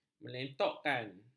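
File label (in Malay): "melentokkan", Malay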